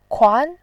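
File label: "kuan2", Mandarin Chinese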